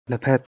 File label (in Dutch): "လက်ဖက်", Birmaans; thee-in-andere-talen